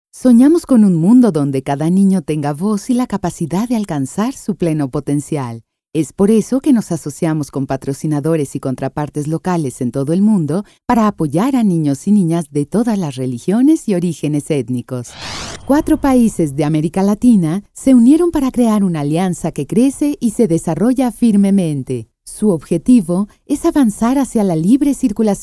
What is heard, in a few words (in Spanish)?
Corporativo